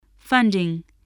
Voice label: "funding", voice, English